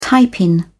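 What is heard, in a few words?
"tiepin", British English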